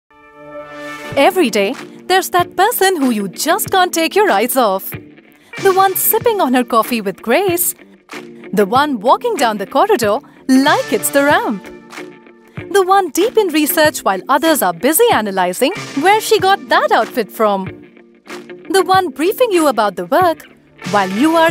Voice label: ADVERTISEMENT PROMO ENGLISH